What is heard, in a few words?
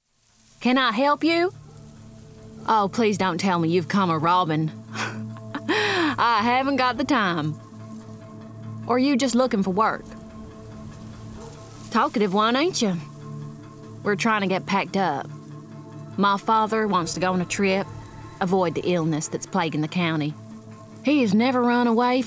Animation; Red Dead Redemption